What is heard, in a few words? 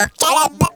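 effects talk, Funky Vocals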